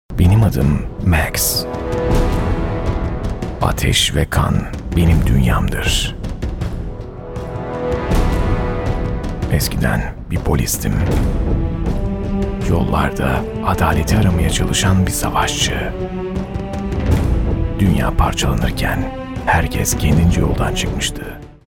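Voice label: Turkish, male, commercial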